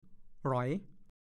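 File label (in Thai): "หล็อย" ภาษาโคราช; แอบหนี แอบไป แอบเอาไปในขณะที่เจ้าของเผลอ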